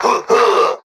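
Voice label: "huh huh", vocal sample; Voice; ooh ahh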